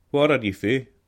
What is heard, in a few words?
where from, scots